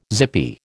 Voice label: "zippy", American